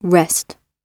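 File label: "rest", British English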